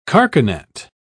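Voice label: "carcanet", British English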